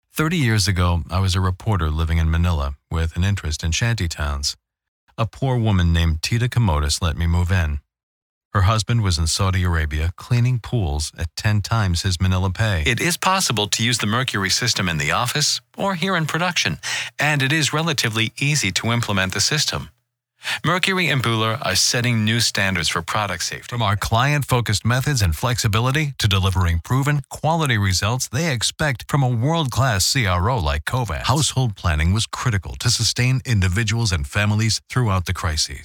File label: Narration